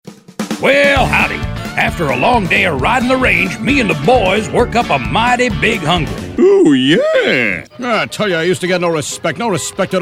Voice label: characters and impressions; A/V Narrations; "Straight" Commercials